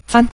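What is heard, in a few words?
"zan", Mandarin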